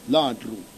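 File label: "latru", Corsican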